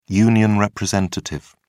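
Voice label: "union representative", British English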